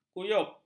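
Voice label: "kuyup", Malay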